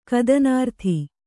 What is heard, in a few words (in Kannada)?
"ಕದನಾರ್ಥಿ", Kannada